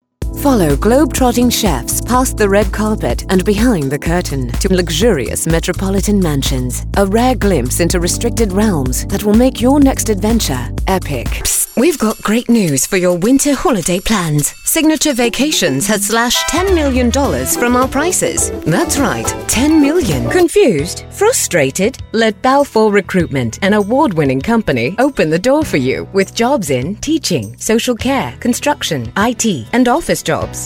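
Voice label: British, animation, Voiceover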